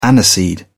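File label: "aniseed", British English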